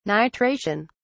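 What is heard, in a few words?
"nitration", British English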